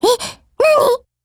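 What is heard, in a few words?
Japanese; HK23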